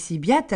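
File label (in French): piatta si, Corsican, Gravona (sud); Corse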